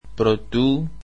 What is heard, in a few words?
"before" greek